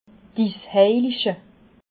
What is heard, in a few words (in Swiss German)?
"isheilige", alsace